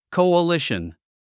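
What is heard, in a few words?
"coalition", English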